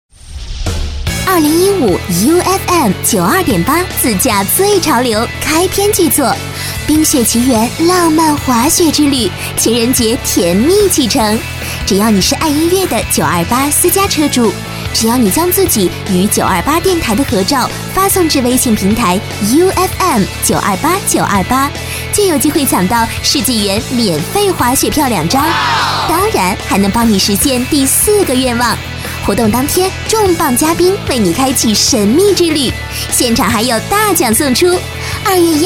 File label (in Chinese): 女19 广告 电台 滑雪 青春 动感 欢快, 国语女声配音; 自驾游滑雪活动